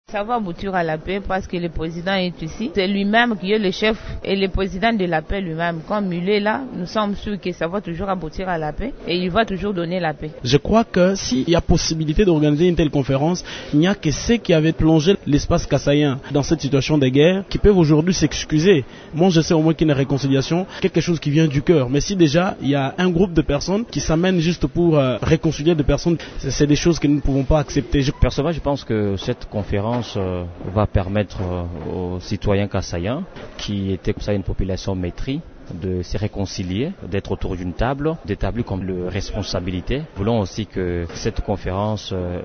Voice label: vox pop a Kananga